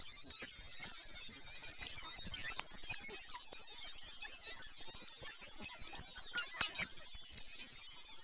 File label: voice track; radiostation